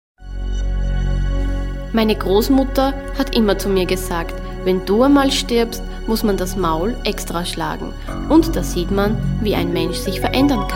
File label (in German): Hoerspiel